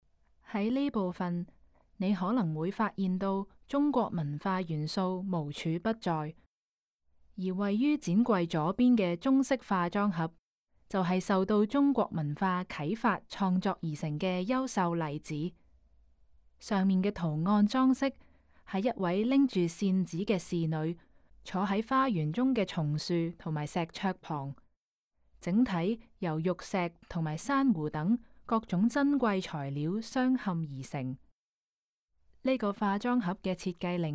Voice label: Cantonese, audio guide; cartier and women

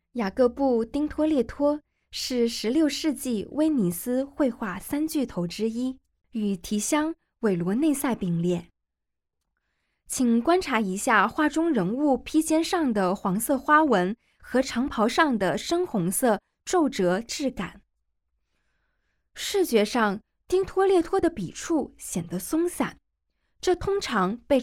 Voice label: Mandarin, audio guide; g9-botticelli-to-van-gogh

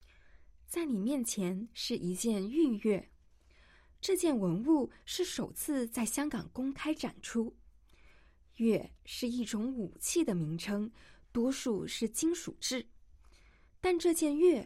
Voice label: Mandarin, audio-guide; gazing at sanxingdui

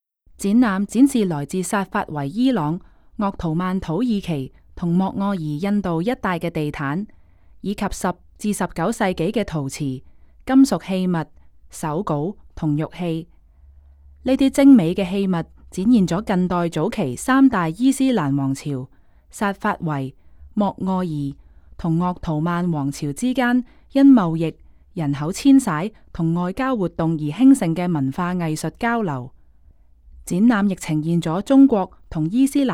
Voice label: Cantonese, audio guide; 展覽簡介; 薩法維伊朗、鄂圖曼土耳其和莫臥兒印度

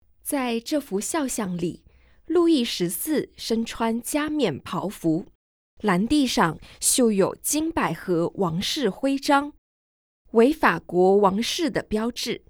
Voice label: Mandarin; audio guide; versailles

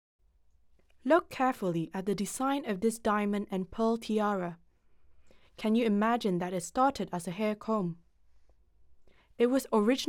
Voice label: English, audio guide

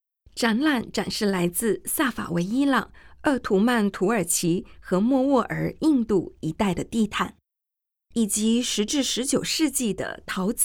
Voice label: Mandarin; 展览简介; 萨法维伊朗、鄂图曼土耳其和莫卧儿印度

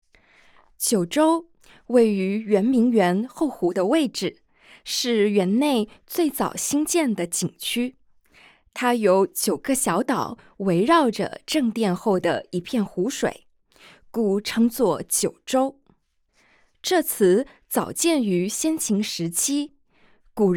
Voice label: Mandarin; yuan ming yuan

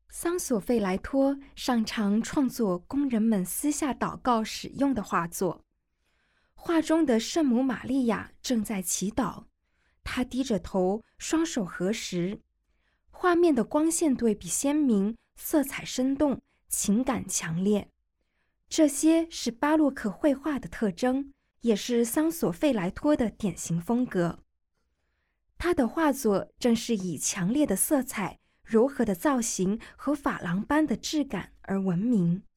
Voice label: Mandarin, audio guide; g9-botticelli-to-van-gogh

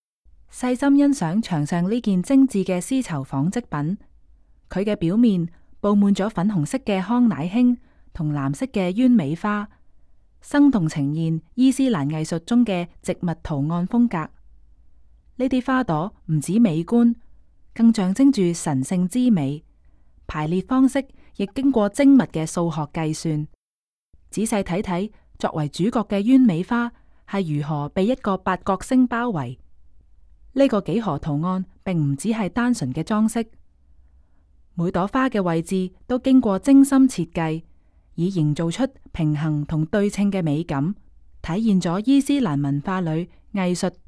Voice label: Cantonese, audio guide; 帶粉紅色、紅色和藍色花朵的紡織品; 薩法維伊朗; 約 1700–1722 年

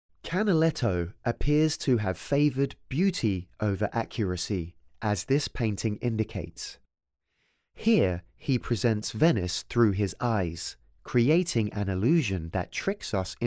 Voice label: English, audio guide

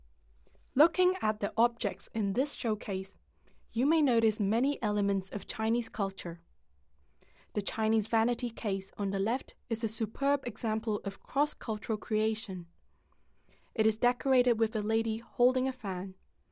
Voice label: English

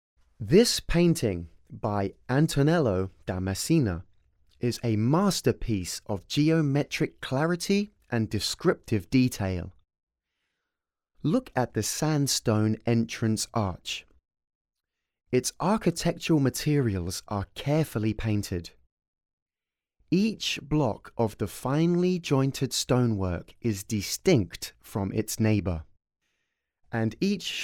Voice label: English, audio guide

